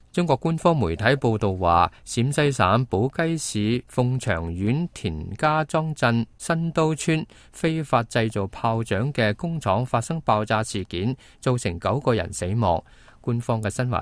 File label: cantonese, news